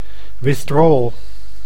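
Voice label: "withdrawal", Dutch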